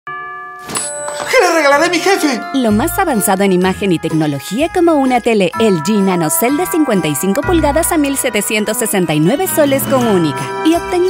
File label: spanish peruvian promos